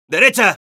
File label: Scout head right, es; "¡A la derecha!"